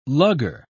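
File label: "lugger", British English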